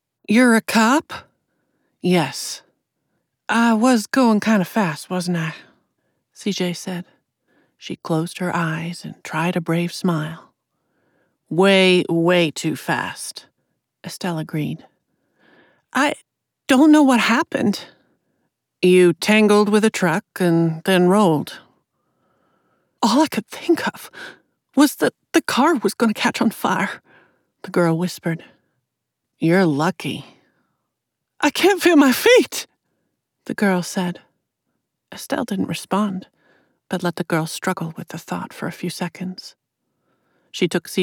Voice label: Mystery 3rd Person Southern American Accent FF Dialogue